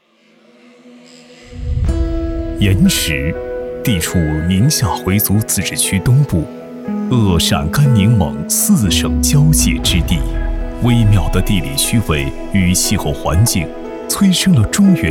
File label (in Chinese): 男 纪录片; 盐池